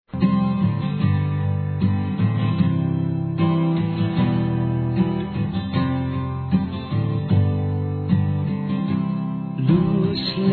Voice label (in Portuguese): INDIFERENÇA M